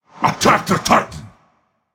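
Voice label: attack, male; smite; anhur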